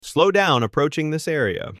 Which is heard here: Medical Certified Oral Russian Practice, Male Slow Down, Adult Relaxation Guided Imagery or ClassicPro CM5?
Male Slow Down